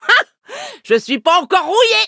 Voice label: victory, fr; Cookie Run